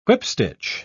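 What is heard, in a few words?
"whipstitch", British English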